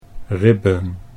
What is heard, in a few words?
"ribben", Dutch; 'ribs'